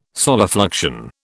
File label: "solifluction", British